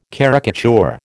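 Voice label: "caricature", American English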